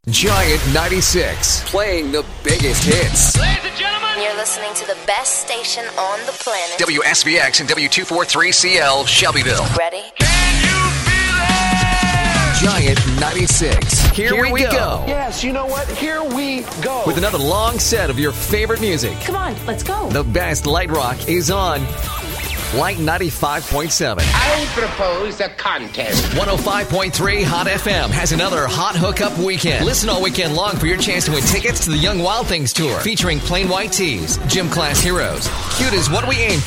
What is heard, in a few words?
Radio Imaging